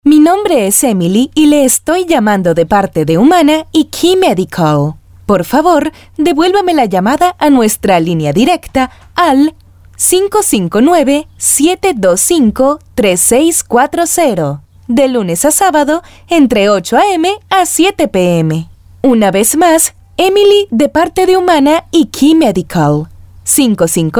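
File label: Spanish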